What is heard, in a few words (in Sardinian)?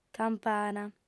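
"campana", female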